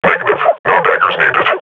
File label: combat idle, grunt